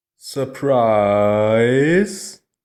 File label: SURPRISE man